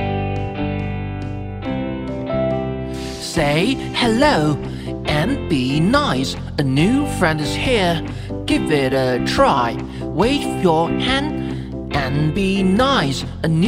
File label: Listen and chant